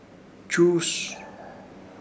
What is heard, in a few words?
"Chuus", Ishir Chamacoco